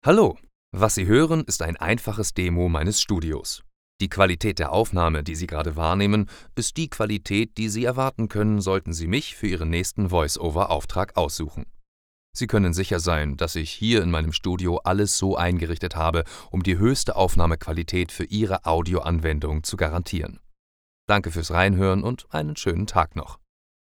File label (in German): german studio sample